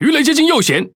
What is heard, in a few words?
Speech Alarms Torpedo Right, ZH TW; World Of Warships